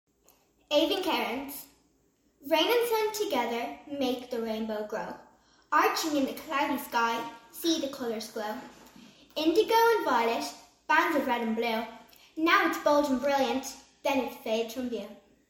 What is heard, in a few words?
Poem